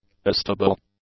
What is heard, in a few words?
"astable", English; adjectives